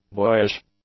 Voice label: "boyish", English; adjectives

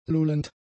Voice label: "lullend", German; adjectives

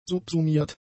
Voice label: "subsumiert", German; adjectives

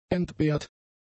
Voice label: "entbehrt", German; adjectives

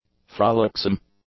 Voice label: "frolicsome", English; adjectives

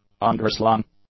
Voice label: "underslung", English; adjectives

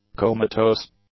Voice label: "comatose", English; adjectives

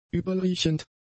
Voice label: "übelriechend", German; adjectives

